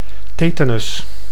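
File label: "tetanus", Dutch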